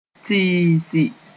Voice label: "tiisi", Moore; make a fool of